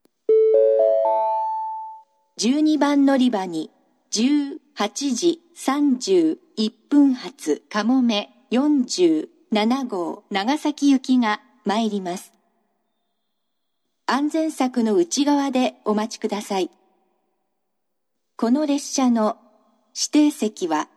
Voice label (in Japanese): 嬉野温泉, 12番のりば接近予告放送; かもめ47号 長崎行き; 列車がホームに差し掛かったら放送が流れます。; 武雄温泉